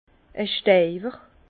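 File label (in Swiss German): "steiwer", Alsace Bas Rhin; vin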